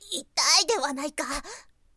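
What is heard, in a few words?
girl, "itaidehanaika"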